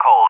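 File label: "cold", English speech; AWACS; DCS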